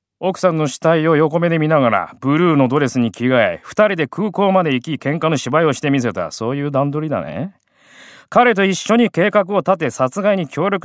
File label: male voice